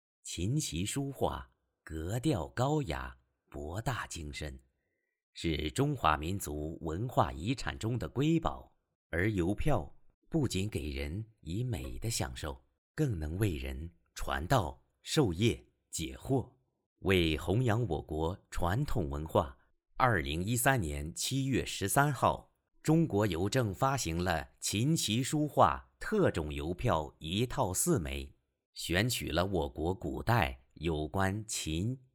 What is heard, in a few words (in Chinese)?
简单旁白试音